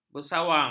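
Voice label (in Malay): "bersawang", Malay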